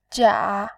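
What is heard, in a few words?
"jia", Mandarin, third tone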